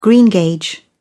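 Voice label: "greengage", British English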